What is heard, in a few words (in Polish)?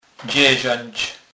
"dzezec", kurpiow